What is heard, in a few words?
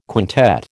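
"quintet", American English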